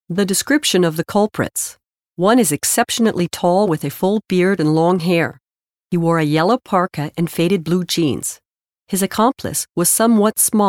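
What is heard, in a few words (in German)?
English US Kommentar Neutral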